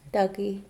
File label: "duck", Mokilese